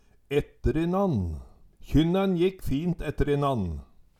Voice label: etterinann, Numedalsmål

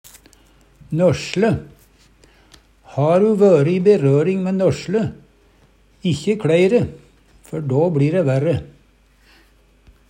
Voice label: nosle, Numedalsmål; Ha' ru vøre i berøring mæ nøsle, ikkje kLei' re, før då bLi' re værre.

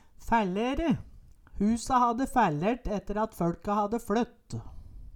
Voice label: "fællere", Numedalsmål; Husa hadde fællert etter at føLka hadde fLøtt

